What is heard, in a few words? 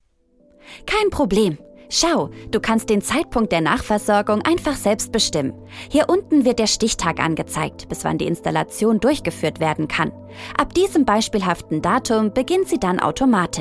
german medical narration